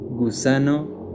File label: spanish